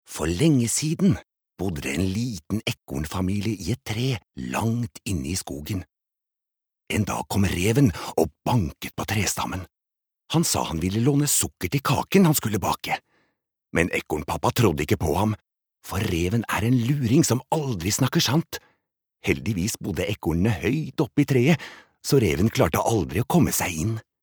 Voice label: no childrens book